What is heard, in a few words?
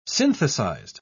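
"synthesized", British English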